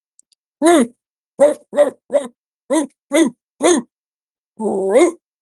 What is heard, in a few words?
voice message